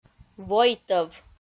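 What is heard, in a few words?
"войтӧв", Komi, female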